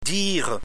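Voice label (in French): "dire", French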